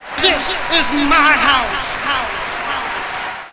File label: myhouse echo